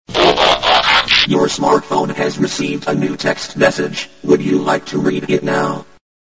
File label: Robot Your Smartphone Received A Text Message